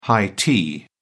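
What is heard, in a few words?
"high tea", English, British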